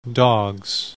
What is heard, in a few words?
"dogs", English